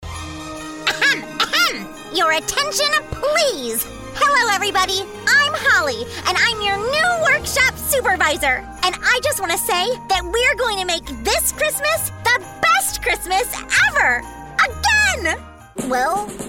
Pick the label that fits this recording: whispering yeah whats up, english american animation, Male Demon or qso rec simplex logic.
english american animation